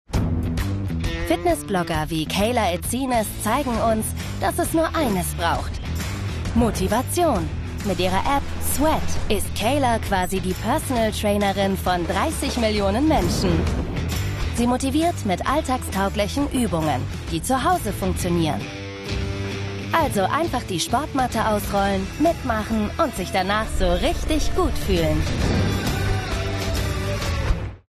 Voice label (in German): werbung anders trainieren; toyota